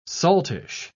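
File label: "saltish", British English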